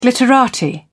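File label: "glitterati", British English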